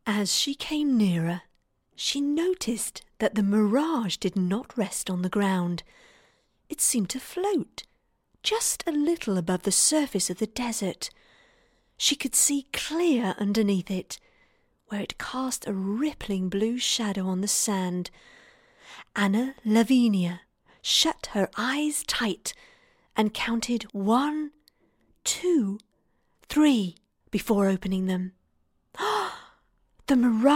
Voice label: voiceover Natural

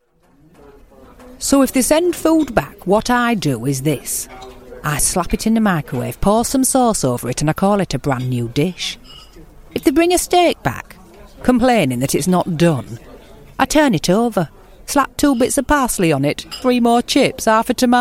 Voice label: Character

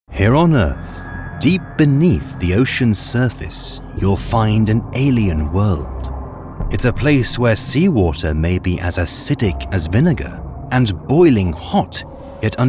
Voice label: Documentary

